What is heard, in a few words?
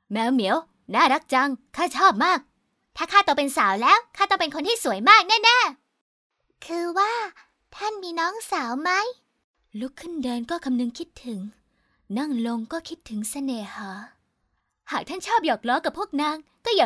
Thai